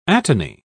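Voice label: "atony", English, uk British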